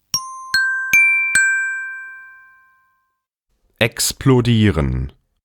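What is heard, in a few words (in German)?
"explodieren", German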